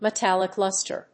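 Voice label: "metallic luster", English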